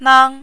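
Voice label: "nang", Mandarin, first tone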